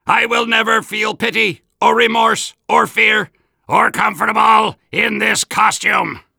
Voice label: Soldier robot

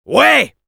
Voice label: Medic yes, voice commands, fr; Commandes vocales du Medic

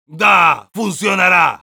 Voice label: positive vocalization, es; Heavy

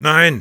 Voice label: no, de; Sniper

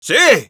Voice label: Scout, es; auto capped control point

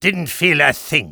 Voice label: taunt head pain

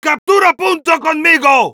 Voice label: help me capture, es; Heavy

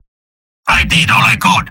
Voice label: jeers; Spy; mvm

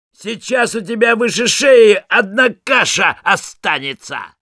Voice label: taunts, ru; Sniper; «Сейчас у тебя выше шеи одна каша останется!»; Реплики снайпера

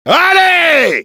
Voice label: battle cry, fr; "Allez !"; Demoman; Demoman responses/fr

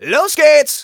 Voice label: go, de; Scout

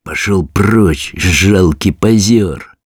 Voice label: taunts, ru; Sniper; «Пошел прочь, жалкий позер.»; Реплики снайпера

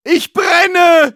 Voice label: Medic autoonfire, de

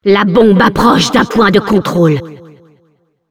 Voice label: Announcer cart attacker warning, fr

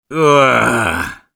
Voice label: negative vocalization; Sniper; «Ооах...»; Реплики снайпера

